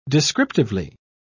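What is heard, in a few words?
"descriptively", British English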